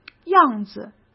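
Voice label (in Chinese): "样子", Mandarin Chinese; chinese flashcards; hsk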